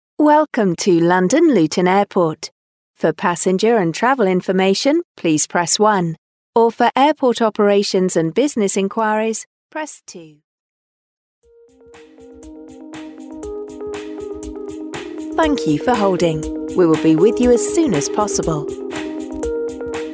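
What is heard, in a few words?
English British, female, IVR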